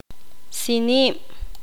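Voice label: "arena de río", Poqomam, female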